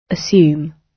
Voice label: "assume", gb